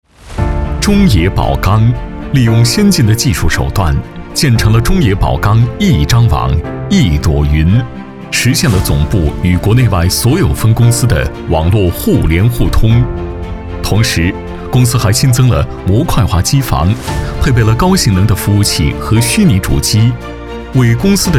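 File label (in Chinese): 男 沉稳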